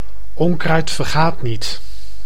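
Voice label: "onkruid vergaat niet", Dutch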